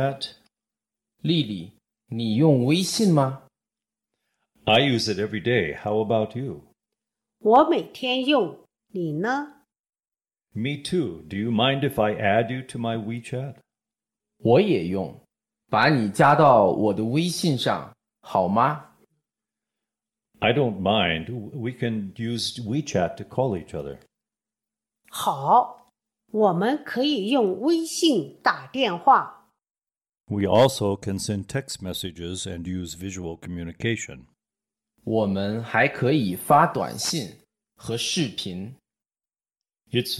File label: Dialog